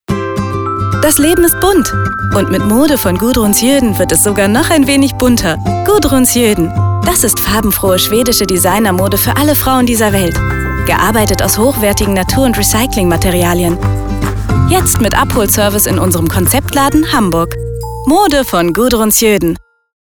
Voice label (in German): FUNKSPOT; Gudrun Sjoeden